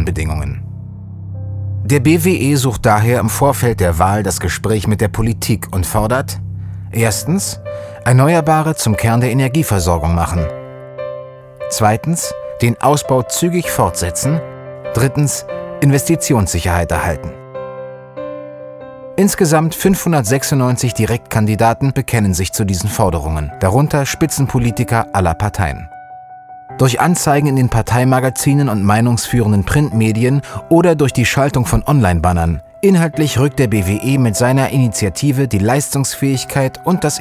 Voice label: image, deutsch maenner; sprecher

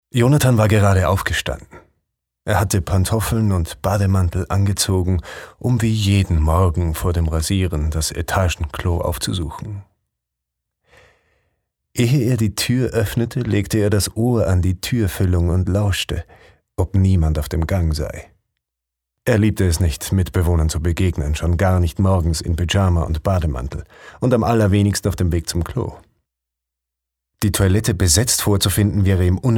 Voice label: de literatur, deutsch maenner; sprecher

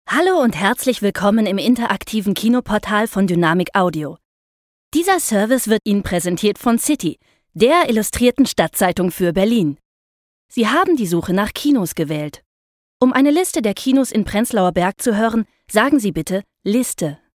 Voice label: de telefon, deutsch frauen; sprecher

